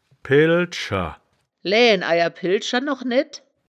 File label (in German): "pellscher", wittlich